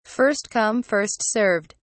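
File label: "first come first served", British English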